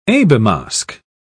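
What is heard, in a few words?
"abelmosk", British English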